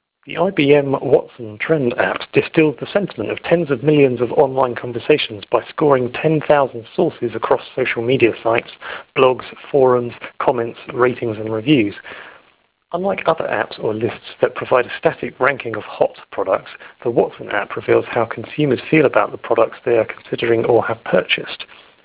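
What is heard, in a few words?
en UK Narrowband sample, British English; watson stt; bluemix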